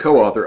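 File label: "coauthor", US English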